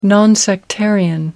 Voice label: "non sectarian", en uk

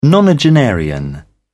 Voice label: "nonagenarian", en uk

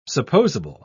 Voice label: "supposable", en uk

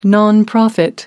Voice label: "nonprofit", en uk

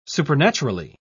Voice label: "supernaturally", en uk

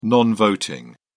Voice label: "non voting", en uk

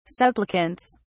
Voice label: "supplicants", en uk

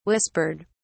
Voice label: "whispered", uk

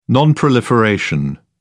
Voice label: "non proliferation", en uk

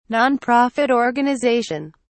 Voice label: "nonprofit organization", en uk

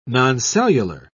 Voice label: "noncellular", en uk

